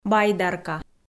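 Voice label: "байдарка", Komi, female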